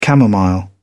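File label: "camomile", British English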